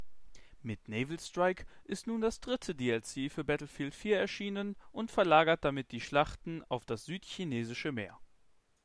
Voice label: Sennheiser Test Clean